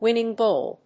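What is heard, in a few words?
"winning goal", English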